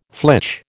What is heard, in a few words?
"flitch", American